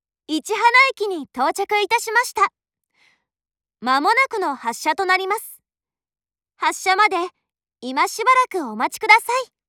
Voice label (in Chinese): 市塙站抵達 廣播, 鐵路社内放送; 萬岡鐵路社内放送